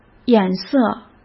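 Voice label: "眼色", Mandarin Chinese; chinese flashcards; hsk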